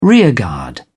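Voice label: "rearguard", British English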